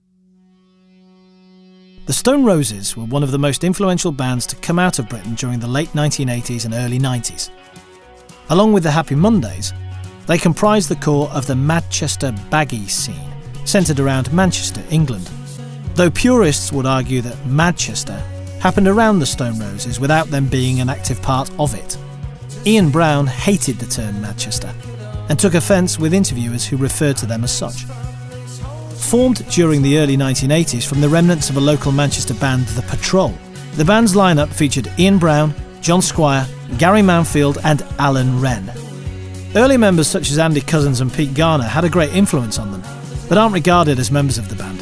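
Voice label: narration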